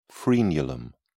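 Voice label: "frenulum", British English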